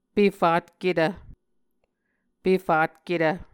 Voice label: "pefatketv", Muscogee

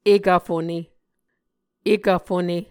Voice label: "ekv fone", Muscogee

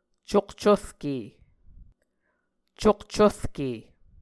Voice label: "cokcurke", Muscogee

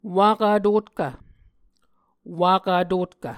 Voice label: "wakv vtotkv", Muscogee

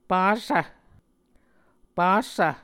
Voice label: "passv", Muscogee

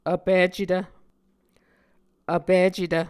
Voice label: "vpicetv", muscogee

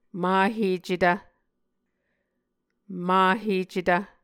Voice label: "mahecetv", Muscogee

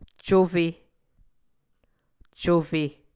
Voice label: "cufe", Muscogee

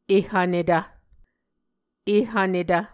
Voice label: "ehvnetv", Muscogee

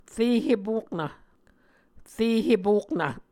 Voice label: "re hepoknv", muscogee

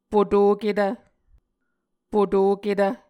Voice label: "potoketv", Muscogee

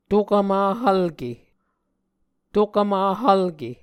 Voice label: "tokvmahvlke", Muscogee

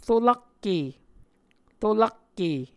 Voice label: "rolakke", muscogee; Onvkv Ohhvyayices; A Dictionary of Creek/Muskogee; SIL International

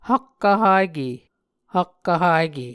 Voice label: "hakkvhake", Muscogee; A Dictionary of Creek/Muskogee; SIL International

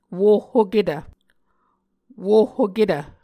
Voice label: "wohhoketv", Muscogee; Mvskoke Words with Repeated Consonants; Martin, Jack B., and Margaret McKane Mauldin. 2023. A Dictionary of Creek/Muskogee

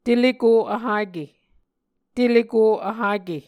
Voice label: "teleko vhake", Muscogee

